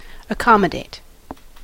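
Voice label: "accommodate", American English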